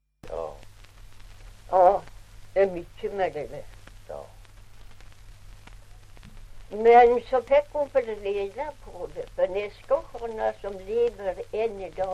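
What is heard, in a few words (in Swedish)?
Ovansjö Gästrikland, dialekter, svenska sagor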